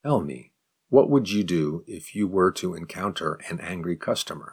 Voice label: advanced question slow

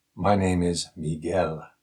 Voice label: response wo accent

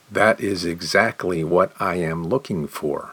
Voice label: response slow

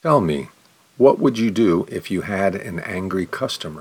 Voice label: question slow

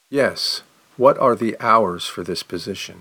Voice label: response slow

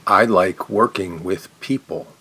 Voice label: response slow

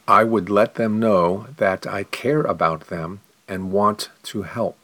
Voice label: response slow

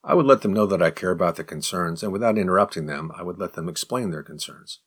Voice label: advanced response fast

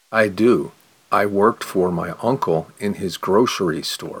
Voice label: response slow

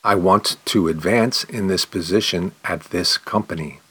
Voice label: response slow

